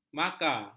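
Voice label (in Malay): "maka", Malay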